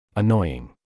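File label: "annoying", English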